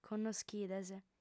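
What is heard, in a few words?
"connoschides", female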